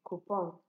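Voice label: "coupon", Nigerian Pidgin, female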